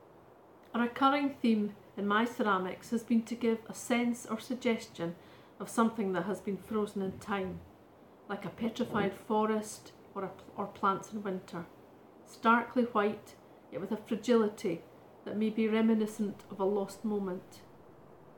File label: audio voiceover